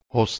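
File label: "h2ous", Proto-Indo-European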